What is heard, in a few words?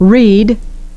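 "read", English pronunciation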